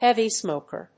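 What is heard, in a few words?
"heavy smoker", English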